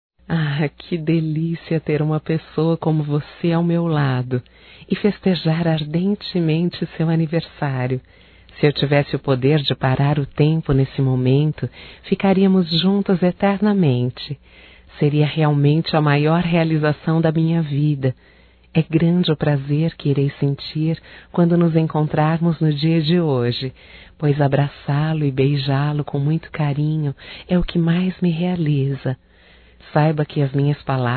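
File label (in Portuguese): romântico female, Telemensagens Aniversarios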